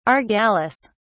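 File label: "argalis", English, British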